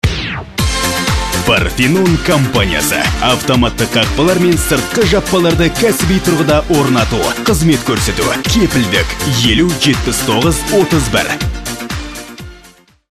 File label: parfenon kaz